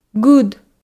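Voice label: "гыд", Komi, female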